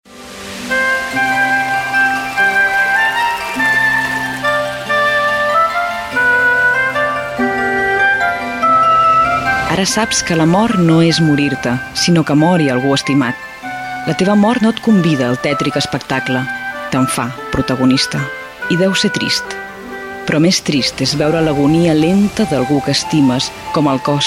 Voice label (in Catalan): POEMA música